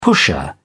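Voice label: "pusher", British English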